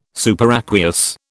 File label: "superaqueous", British